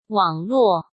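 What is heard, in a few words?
"网络", Mandarin Chinese; hsk; chinese flashcards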